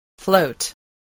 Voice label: "float", spelling

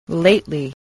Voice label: "lately", English

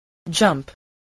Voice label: "jump", voice

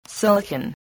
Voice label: "silicon", spelling

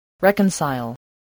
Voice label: "reconcile", voice, english

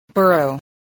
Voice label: "burrow", spelling

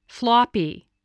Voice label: "floppy", spelling

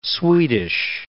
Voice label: "Swedish", English

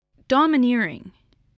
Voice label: "domineering", spelling

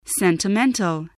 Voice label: "sentimental", english spelling

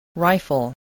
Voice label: "rifle", English

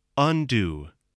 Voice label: "undo", English spelling voice

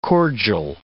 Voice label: "cordial", English

